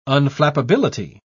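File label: "unflappability", English, uk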